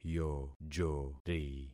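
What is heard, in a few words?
"yojori", concatenated syllables; Affixing experiment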